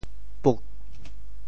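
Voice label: "puk4", Teochew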